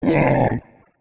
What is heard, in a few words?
idle, giant zombie soldier; slender